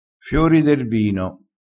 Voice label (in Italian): "fiori", crespinese